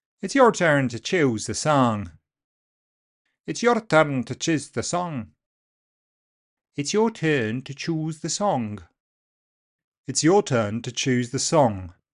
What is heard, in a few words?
Welsh